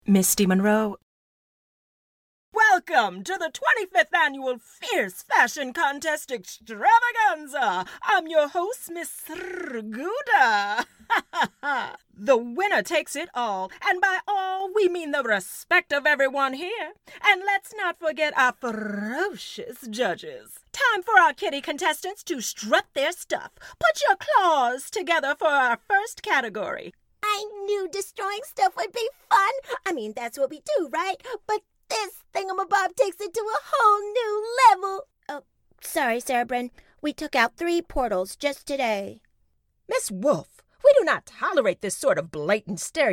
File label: animation; Innovative LA